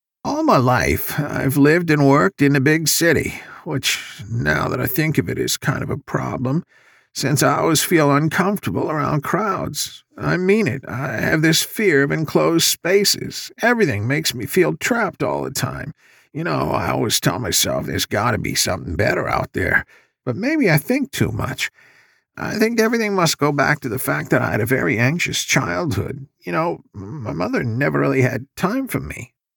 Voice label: Game Character Nervous Nellie shy halting scared